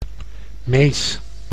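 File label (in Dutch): "mees" Dutch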